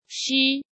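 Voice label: "Shī", Chinese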